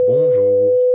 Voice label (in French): bonjour bruit; Bonjour bruité; Projet filtrage numérique